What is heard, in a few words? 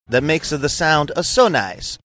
saying that makes a sound so nice funny